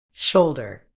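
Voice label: "shoulder", British English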